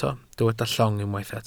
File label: "llong", welsh